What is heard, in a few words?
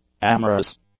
"amorous", English; adjectives